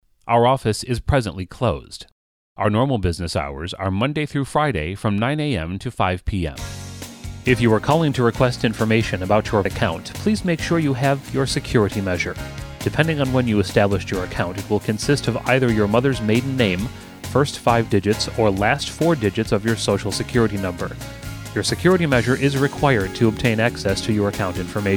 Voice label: Phone System